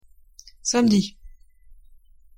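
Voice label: "samedi", French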